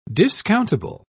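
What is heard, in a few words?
"discountable", English, British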